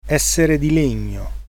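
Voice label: crazy Italian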